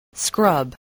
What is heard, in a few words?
"scrub", english spelling